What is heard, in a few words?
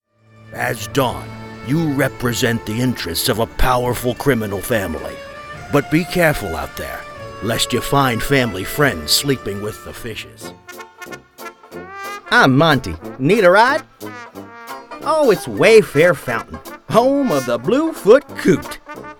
Heroes Villains Character